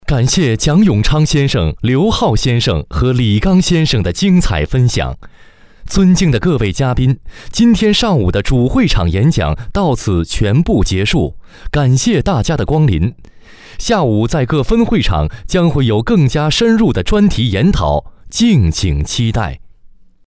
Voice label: 男 会议画外音 中文

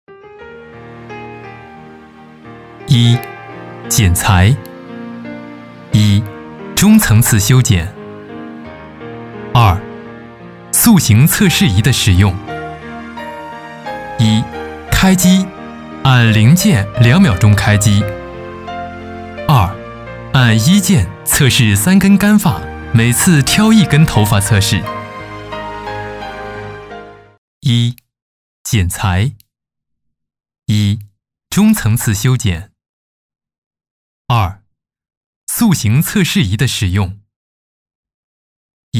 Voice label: 男 解说专题 烫发流程标准; 博菲丝烫发流程标准

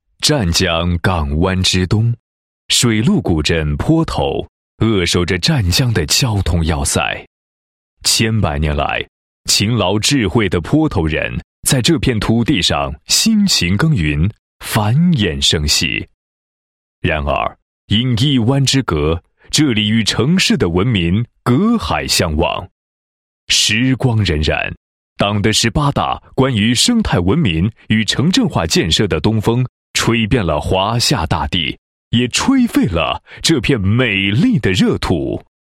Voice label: 男, 城市地区宣传; 生态筑新镇

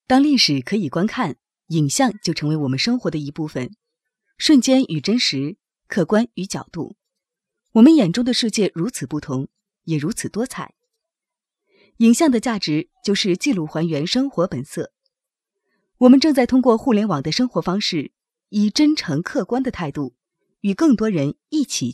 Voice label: 女 非播音腔讲述; 图猫

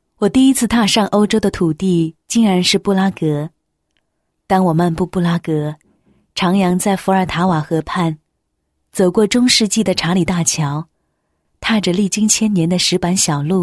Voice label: 女 自白; 欧洲布拉格个人艺术展

